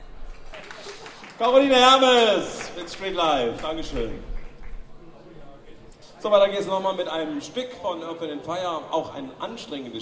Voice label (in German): Ansage, Konzert; Boemundhof, Saarburg